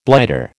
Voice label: "blighter", American